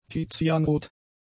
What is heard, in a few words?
"tizianrot", German; adjectives